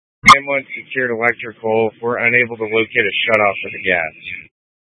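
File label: Incident Tactical, scanner audio recording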